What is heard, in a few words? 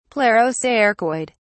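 "plerocercoid", British English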